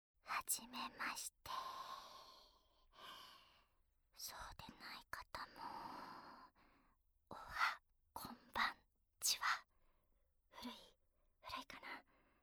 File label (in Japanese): フリートーク, ASMR